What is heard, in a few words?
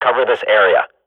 radio bot hold, swat; Counter Strike Model Pack; CS:GO